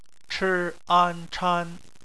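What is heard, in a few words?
"chan", bopomofo, chinese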